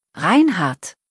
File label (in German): "Reinhard", deutsch, jungennamen